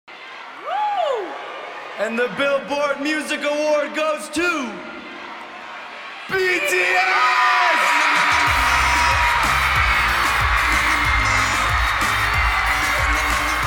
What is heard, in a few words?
Skit Speech; Billboard Music Awards